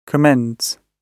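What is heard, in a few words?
"commends", British English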